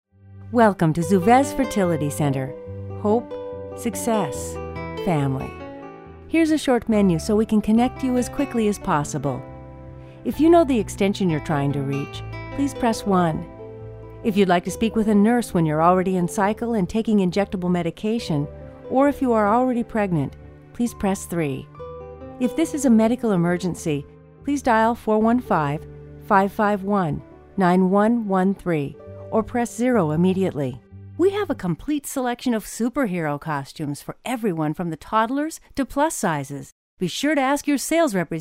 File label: narration voice mail